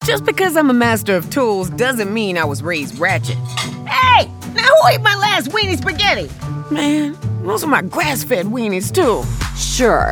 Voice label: women animation; 30s to Late 40s. Warm, bright, sensual, natural, and conversational.

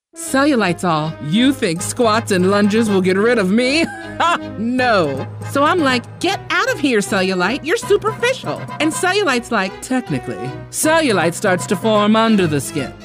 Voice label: women commercial

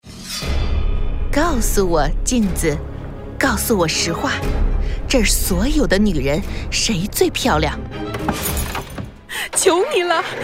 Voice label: chinese mandarin video games; Calm, firm, strong, elegant, innocent, evil, bouncy, dramatic

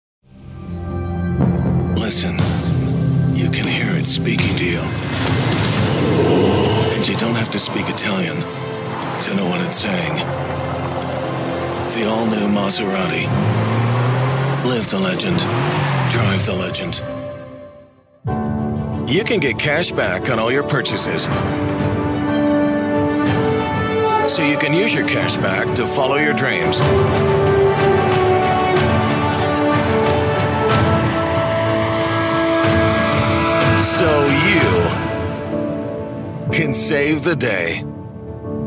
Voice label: men commercial

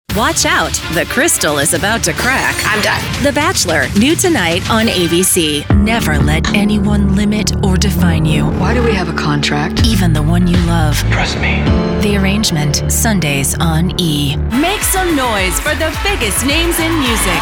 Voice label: women promos; Warm, texture, wry, attitude, real, relatable, playful, intimate, range.

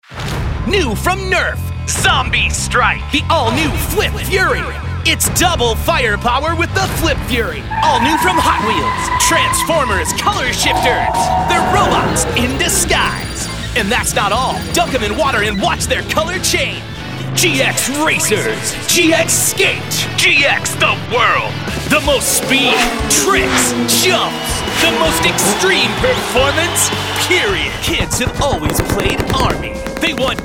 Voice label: men commercial

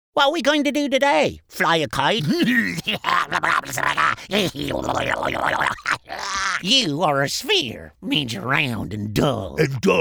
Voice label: men animation

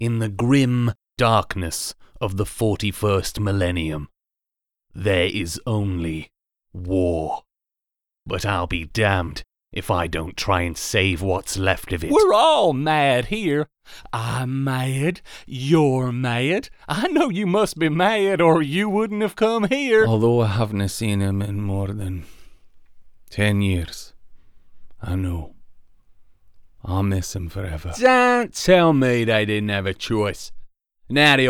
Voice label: men animation; British, persuasive and appealing, range of accent work from ancient English vampires to grizzled American assassins.

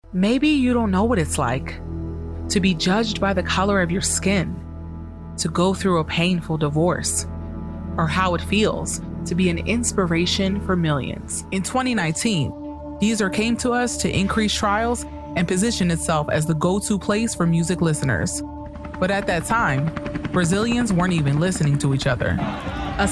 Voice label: african american narration; Warm, versatile, and rich with emotion and depth.; Everything from engaging conversational and urban tones to compelling

